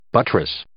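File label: "buttress", English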